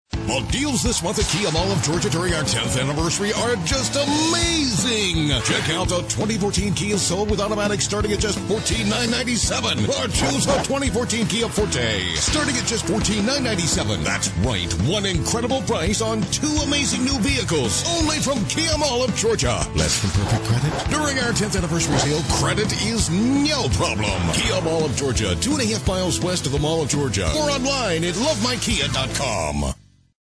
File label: automotive voice overs